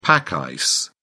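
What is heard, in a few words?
"pack ice", British English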